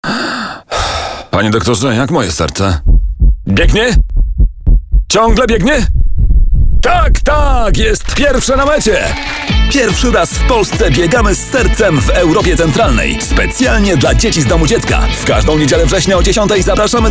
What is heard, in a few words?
spot radio; eska; Biegamy Z Sercem